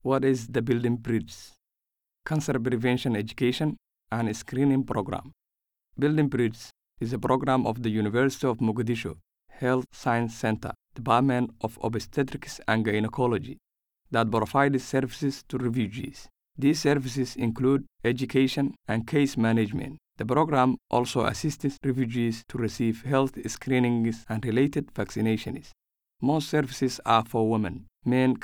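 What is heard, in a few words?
Somali Accented English; GoLocalise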